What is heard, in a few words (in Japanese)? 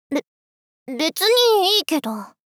べっ、別にいいけど・・?！ ツンデレ×少女×中音域